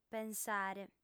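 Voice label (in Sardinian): "pensare", female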